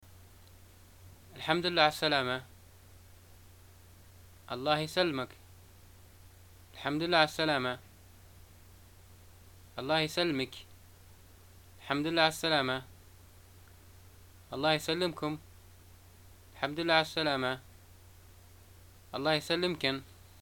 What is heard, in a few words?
greeting arrivals, arabic